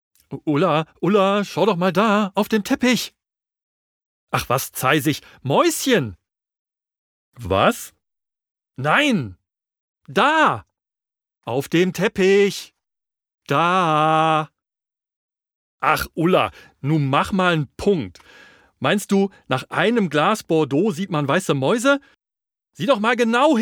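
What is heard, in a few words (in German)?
Hörspiel Sprecher Kinder Vater erstaunt lebendig, Natürliches, lebendiges Spiel. Aufregung und Überraschung. Variation in Reaktion und Emotion.; Rolle: Der Vater. (Szene mit Partnerin); "Truschel der kleine Geisterhund"(Hörspiel)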